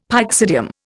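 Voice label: "pyxidium", Indian English; Dictionary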